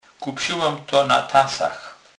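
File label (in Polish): "tasy", Kurpie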